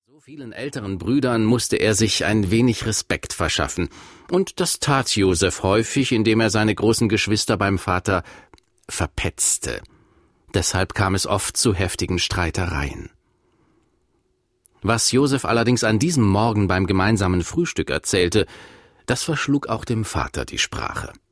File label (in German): Erzaehler